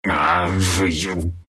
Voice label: anger ru; Dota 2

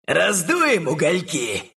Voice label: respawn ru; Dota 2

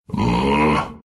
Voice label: happy ru; Dota 2

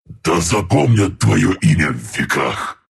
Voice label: thanks, ru

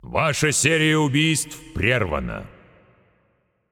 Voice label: Announcer Russian Spree End

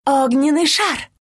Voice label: ability lightstrike ru; Lina; Dota 2

